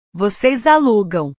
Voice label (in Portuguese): "vocês alugam", portugues; Ustedes alquilan